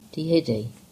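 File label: "Tehidy", English; place-names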